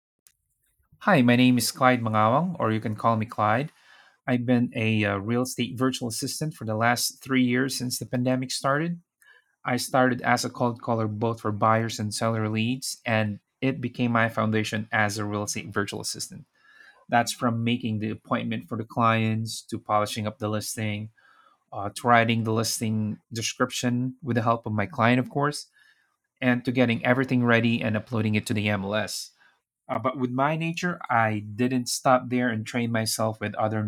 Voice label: Voice Recording, Self Introduction